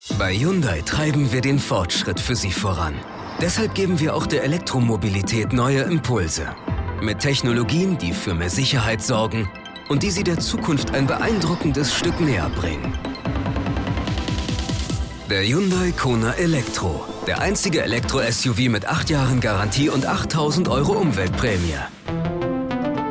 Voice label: Werbung TV; Hyundai KONA EV IONIQ

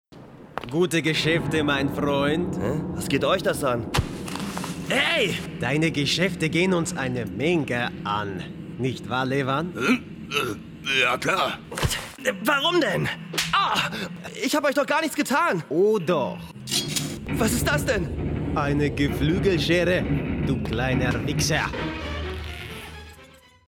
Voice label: hoerspiel trick dialoge thriller; mit kollegen; LOFT VOICES

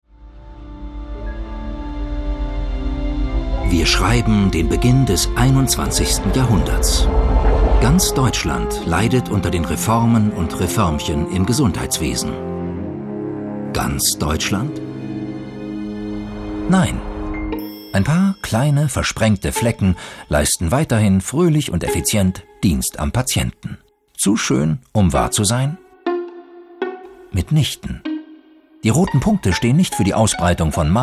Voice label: kommentar; LOFT VOICES

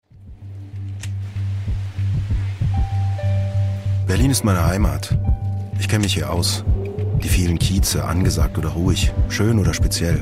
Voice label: Imagefilm; HOWOGE

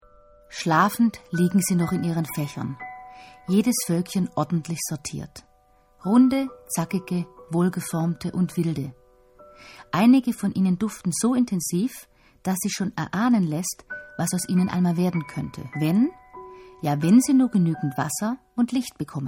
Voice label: schwäbisch; swr; da war doch was; LOFT VOICES

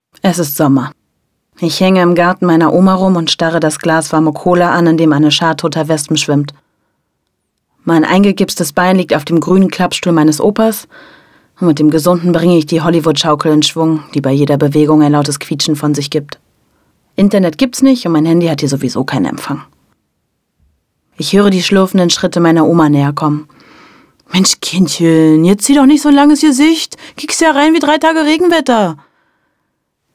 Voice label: Hoerbuch; Sommer im Gips

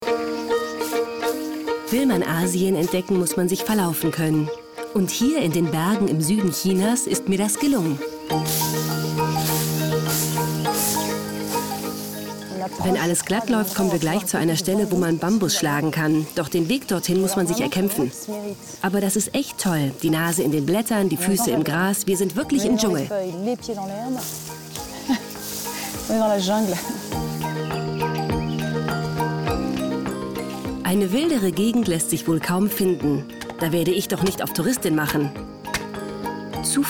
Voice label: kommentar

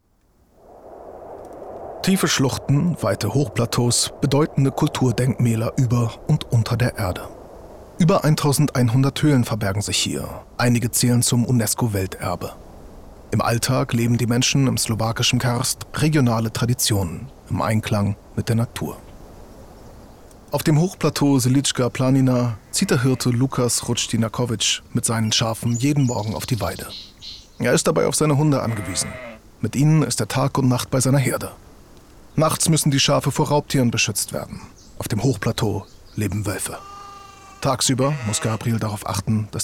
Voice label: Doku Slowakei, German, Norddeutsch

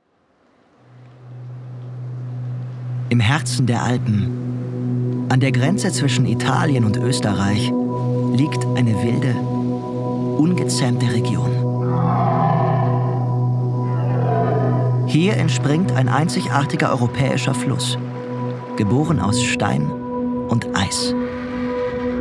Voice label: DOKUMENTATION OFF; Der Tagliamento

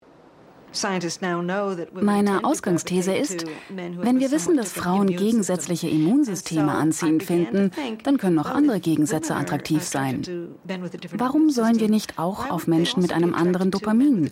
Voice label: doku voice over; 3sat

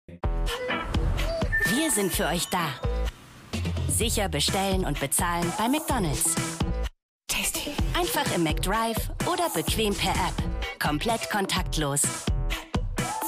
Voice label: Werbung TV; McDonalds

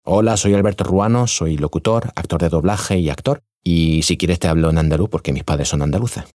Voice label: Vorstellung ES

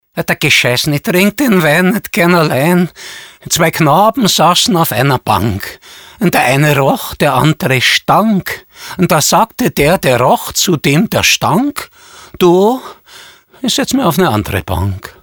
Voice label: VO Only Sprecherdemos Wienerisch; LOFT VOICES

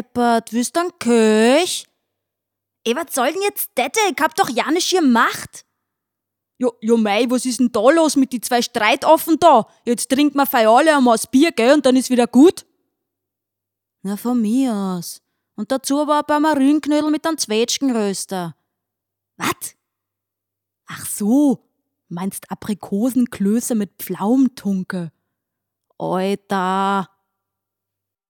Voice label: dialekte

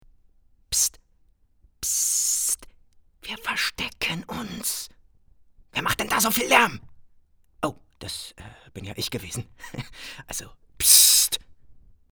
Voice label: Rolle Flüstern nervös, German; Lip-Sync (Synchron), Scene

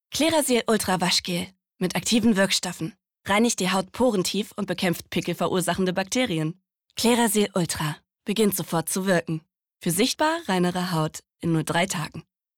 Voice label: werbung

